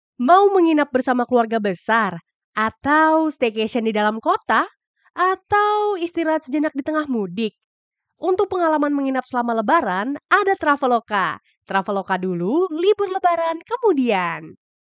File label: Indonesia Casual